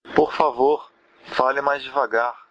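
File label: slowly, Brazilian Portuguese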